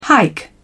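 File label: "hike", British English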